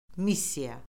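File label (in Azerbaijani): "missiya", Azerbaijani; Orfoqrafiya Lüğəti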